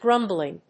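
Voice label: "grumbling", English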